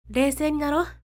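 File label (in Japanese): 女性 reisei ni narou; クール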